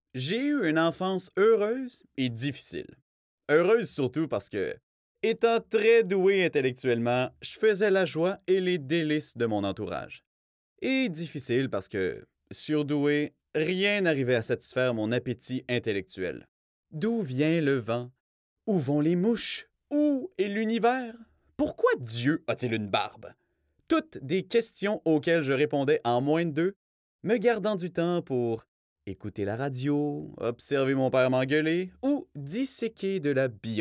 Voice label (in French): livre audio comique narration fr qc naturel grave serieux sobre jeune adulte